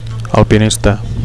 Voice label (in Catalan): "alpinista", Catalan; climber / mountaineer